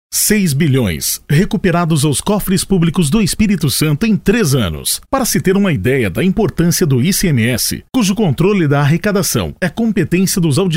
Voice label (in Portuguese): INSTITUCIONAL INFORMATIVO